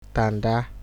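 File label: "tanda", Nigerian Pidgin, male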